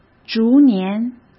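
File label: "逐年", Mandarin Chinese; hsk; chinese-flashcards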